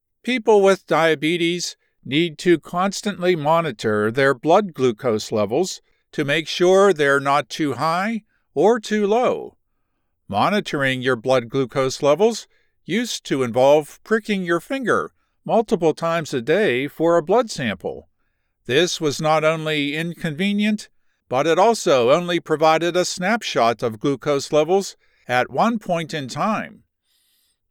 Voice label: expert explainer; e-learning